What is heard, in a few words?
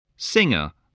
"singer", voice spelling